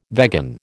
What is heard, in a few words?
"vegan", American